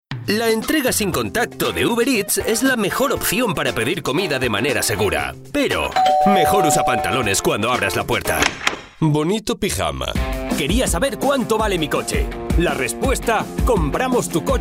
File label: male spanish comercial; ideasonora